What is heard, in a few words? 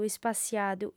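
"ispassiadu", female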